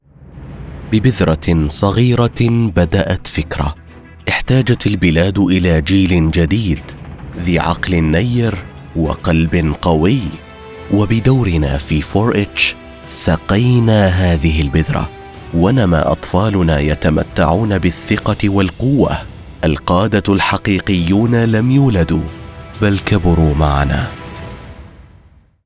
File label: Corporate Confident Powerful Standard Arabic; 4-H